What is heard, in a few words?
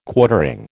"Quartering", American